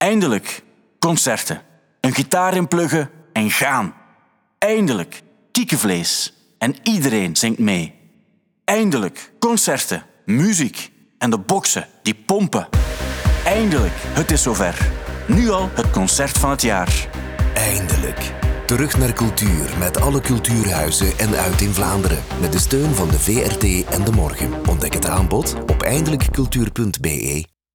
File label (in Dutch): radio